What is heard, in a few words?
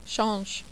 "change", French